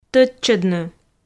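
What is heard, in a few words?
"тӧдчӧдны", Komi, female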